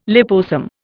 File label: "liposome", Indian